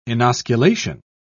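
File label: "inosculation", British English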